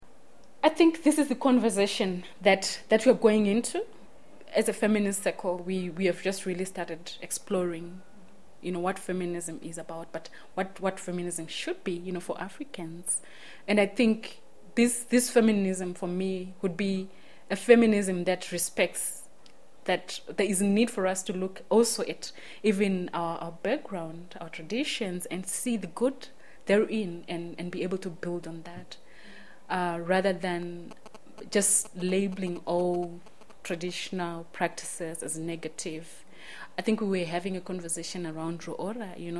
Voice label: Interview; african feminism